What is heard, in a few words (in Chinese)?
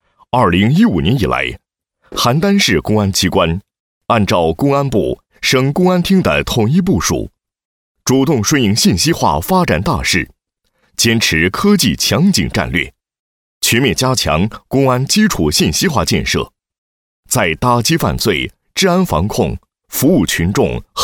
男 公安 基础信息化建设, 配音; 邯郸市公安局